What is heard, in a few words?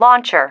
"launcher", female